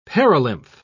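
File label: "perilymph", British English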